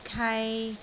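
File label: "kai3", Cantonese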